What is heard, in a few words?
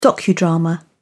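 "docudrama", British English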